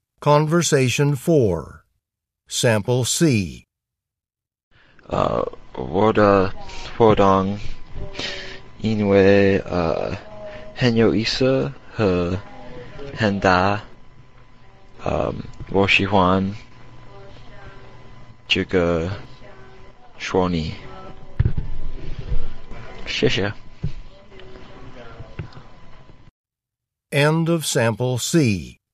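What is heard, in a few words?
chinese conversation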